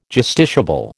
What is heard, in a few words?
"justiciable", American